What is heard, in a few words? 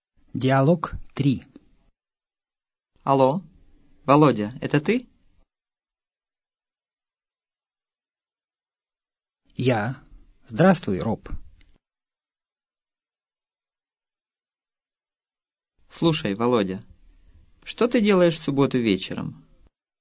dialog, russian